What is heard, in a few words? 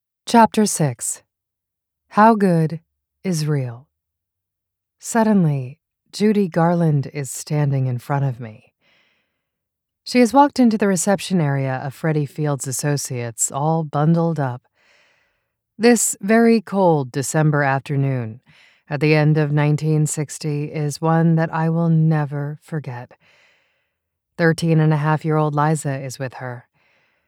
audiobooks memoir